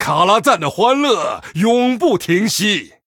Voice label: Male Human Picked; zh